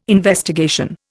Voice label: "investigation", Indian